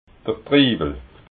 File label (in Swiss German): "triwel", alsace